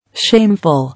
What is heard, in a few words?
"Shameful", English